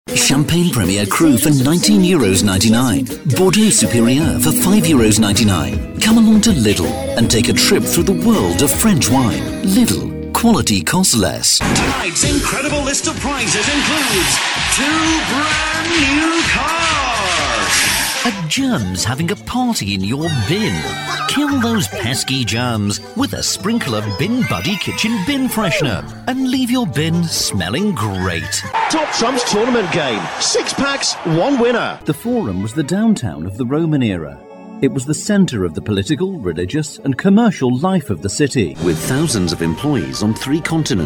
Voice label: English montage

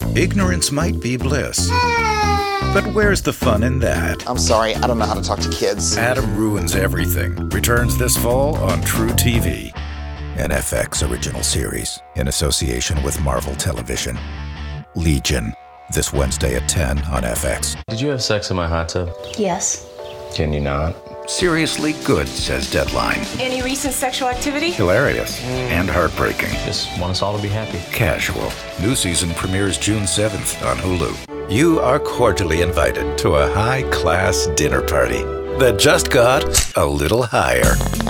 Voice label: Promo

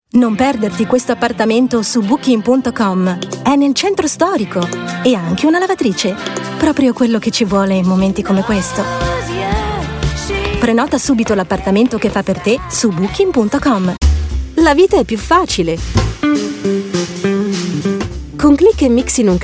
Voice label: commercial

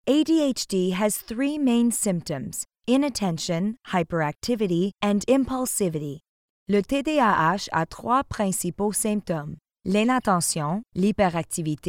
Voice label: Bilingual E-learning

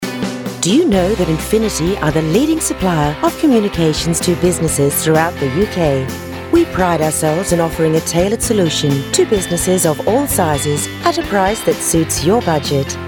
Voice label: IVR

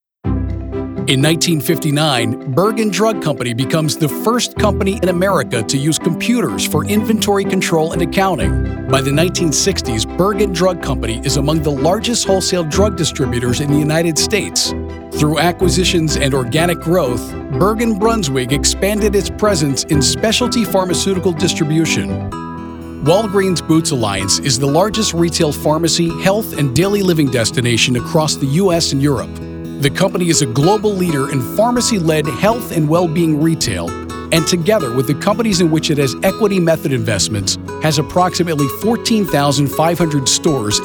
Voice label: Corporate

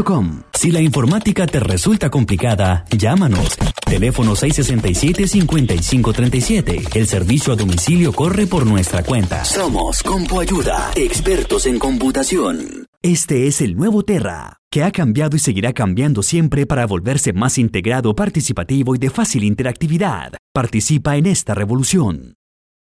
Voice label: Spanish Latin American Commercial

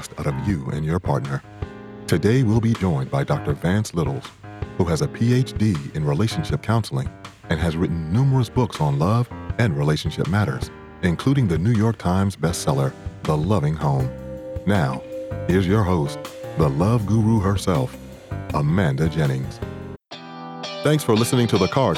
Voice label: Podcast Intro Outro; English (North American)

